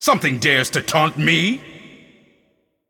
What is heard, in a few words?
Male Void Elf Emote Error Taunt